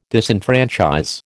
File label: "disenfranchise", American